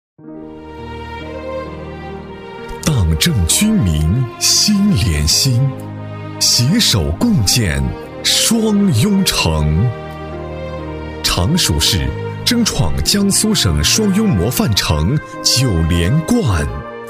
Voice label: 男, 党政专题, 大气深情; 大气磁性; 质感磁性

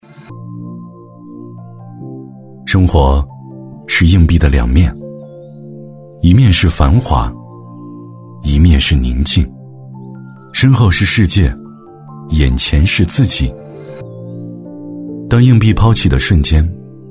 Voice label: 男 广告 磁性 自然生活, 抒情温暖 轻松活泼; 男D6-广告：磁性-自然生活

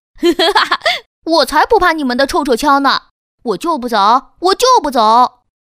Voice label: 女 角色 【12岁男童】; 素人自然

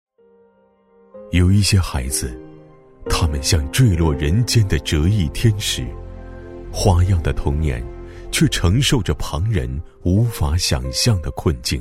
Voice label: 男, 汇报 儿童工作 温暖解说风格; 年轻浑厚; 舒缓抒情

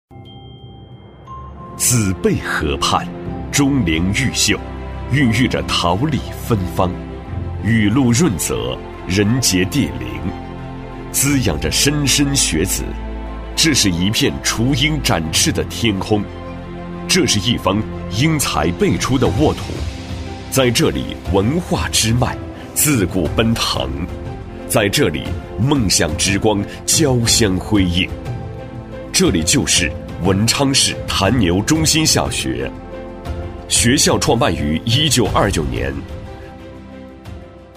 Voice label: 男, 学校宣传; 男25-年轻激情 质感磁性; 文昌市潭牛中心小学

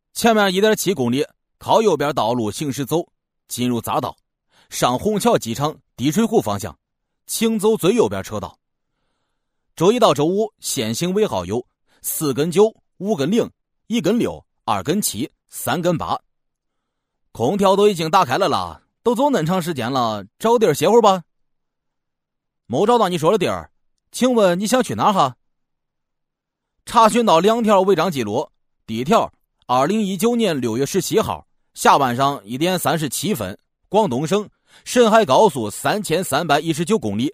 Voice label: 男 河南方言, 年轻全能 素人自然

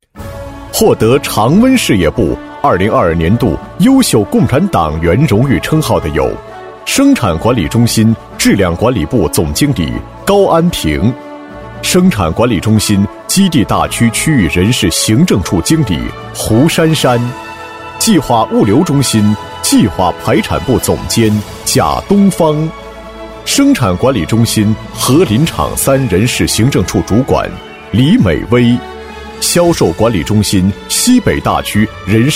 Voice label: 男, 颁奖配音, 大气高昂, 大气主流 大气浑厚; 常温表彰视频

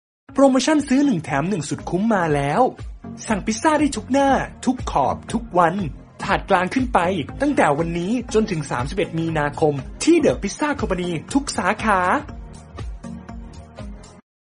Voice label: 泰语男声

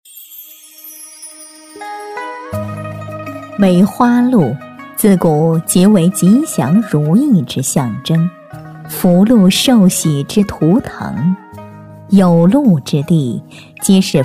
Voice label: 女 专题配音 医药活动-缓缓道来-国医古风, 纪实记录

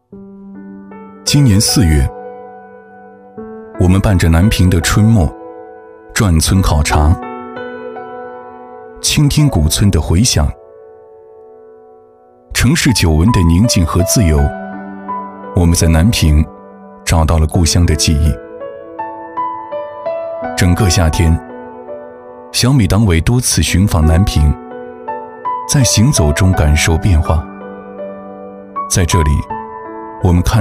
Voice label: 男 纪录片 走心讲述; 南屏; 激情有力 自然诉说

